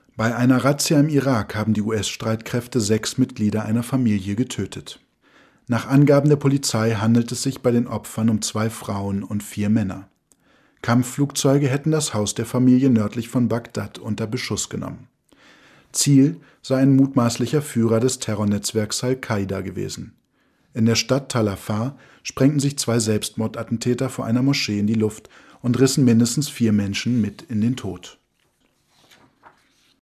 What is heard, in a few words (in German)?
Nachrichten